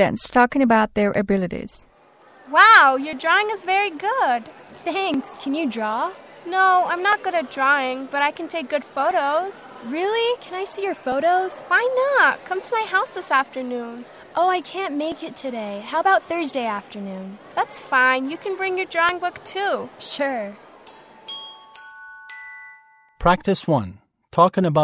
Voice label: english conversation